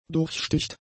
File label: "durchsticht", german; adjectives